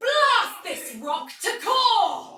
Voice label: Attack Heavy Tech, Soldier Female, Battle; Dialog; Barks; Sinecure